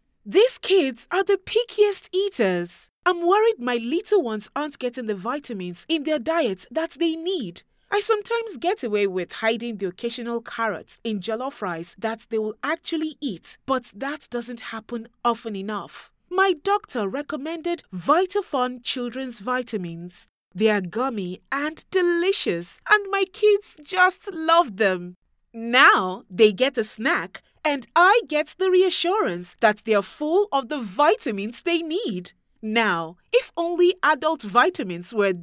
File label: english african animation